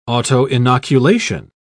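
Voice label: "autoinoculation", English, British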